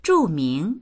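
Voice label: "zhu4ming2", Mandarin Chinese; 著名; 著名な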